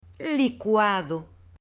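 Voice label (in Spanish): "licuado" Spanish, male; ALIMENTOS; AppLenguaje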